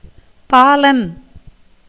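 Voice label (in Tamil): "பாலன்", Tamil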